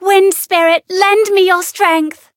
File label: Voice chant; lovina